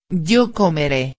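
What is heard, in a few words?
"comere", Spanish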